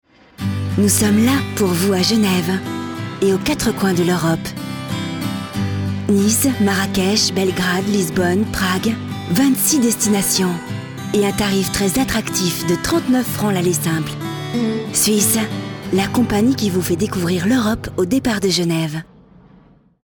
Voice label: Werbung CH FR; franzoesisch schweiz

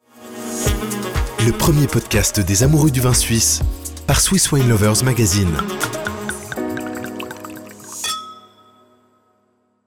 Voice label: Werbung FR CH

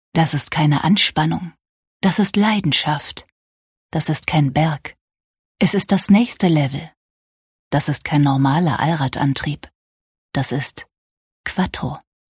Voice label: Werbung DE DE

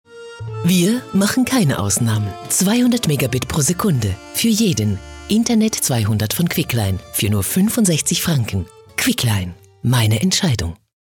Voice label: Werbung CH DE; flippig frech verspielt

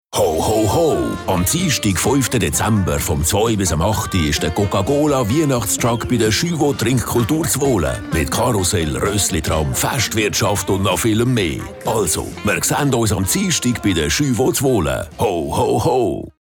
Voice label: Werbung CH ZH; flippig frech verspielt

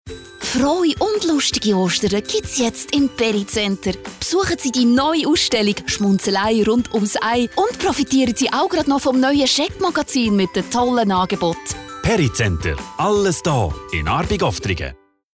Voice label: Werbung CH AG

